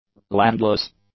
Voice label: "landless", english; adjectives

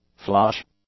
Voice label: "flush", English; nouns

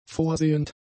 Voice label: "vorsehend", German; adjectives

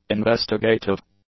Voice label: "investigative", english; adjectives

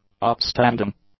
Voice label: "upstanding", english; adjectives

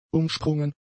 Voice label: "umsprungen", German; adjectives

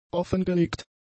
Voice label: "offengelegt", German; adjectives

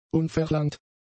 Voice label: "unverlangt", German; adjectives

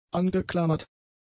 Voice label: "angeklammert", German; adjectives

